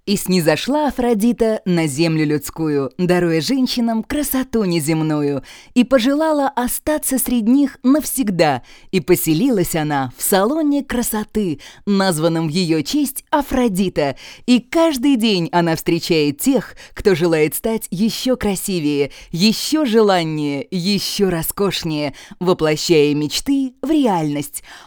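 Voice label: festive, Russian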